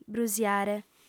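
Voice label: "brusiare", female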